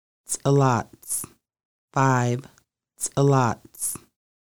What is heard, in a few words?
Five, conversational Lushootseed; Conversational Lushootseed: Lessons 1-5